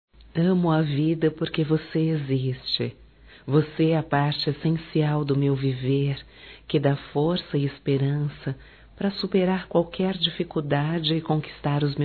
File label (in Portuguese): DIA DOS NAMORADOS Declaração (F); Telemensagens 2017